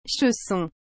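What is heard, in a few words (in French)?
"chausson", French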